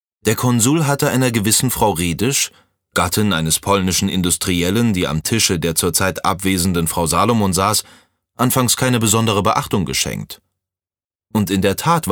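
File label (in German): Hörbuch anspruchsvoll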